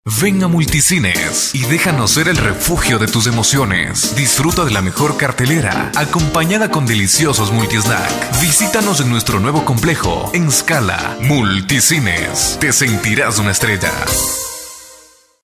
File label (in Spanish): cuña; multicines